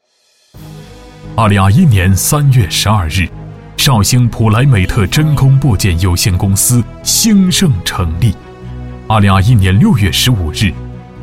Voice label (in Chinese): 男 广告 激昂 振奋人心; 普莱美特